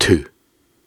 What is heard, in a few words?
Soft Syllables and Imaginary Words, Viking Vocal